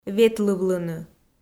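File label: "ветлывлыны", Komi, female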